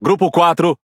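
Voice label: Speech Pilots Torpedo, Brazilian Portuguese; World Of Warships; PT BR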